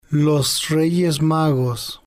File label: "los Reyes Magos", spanish